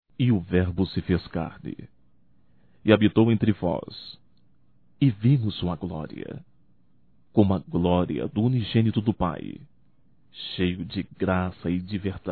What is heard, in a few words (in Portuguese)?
Amiga Masculino, Natal; Natal e ano novo; Telemensagens 2017